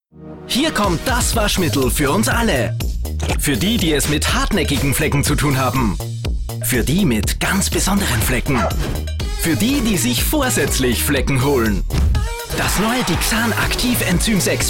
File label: male austrian german commercial